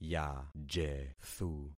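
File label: "yajethu", concatenated syllables; Affixing experiment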